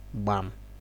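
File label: "gbam", Nigerian Pidgin, male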